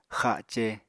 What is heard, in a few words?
"xace", Apatani